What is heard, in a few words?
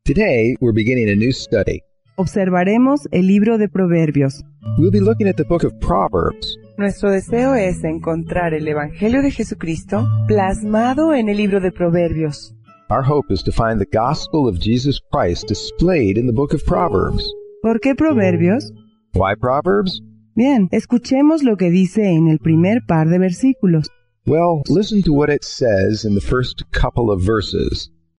spanish english, a proverb a day spanish english audio; spa spanish english audio bible